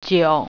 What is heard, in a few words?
"wine", Mandarin